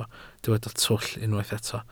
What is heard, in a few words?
"twll", Welsh